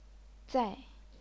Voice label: "zai4", chinese pinyin

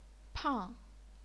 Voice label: "pang4", chinese pinyin

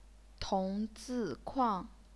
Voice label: "tong2 zi4 kuang4", chinese pinyin

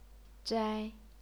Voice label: "zhai1", chinese pinyin

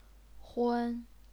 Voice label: "hun1", chinese pinyin

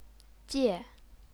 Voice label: "jie4", chinese pinyin

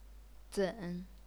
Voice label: "zen3", chinese pinyin

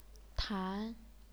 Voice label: "tan2", chinese pinyin

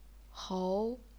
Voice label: "hou2", chinese pinyin

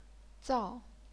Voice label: "zao4", chinese pinyin

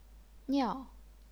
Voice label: "niao4", chinese pinyin

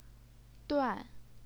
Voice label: "duan4", Chinese pinyin

